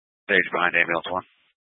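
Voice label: Incident Tactical 1, Scanner audio recording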